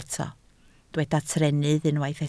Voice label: "trennydd", Welsh